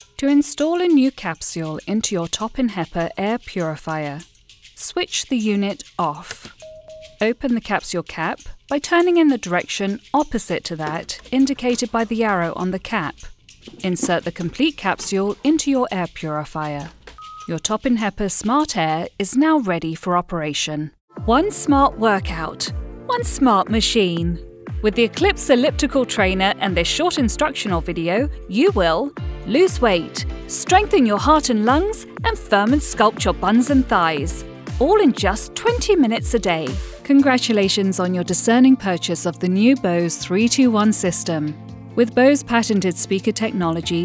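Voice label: elearning, British voice actor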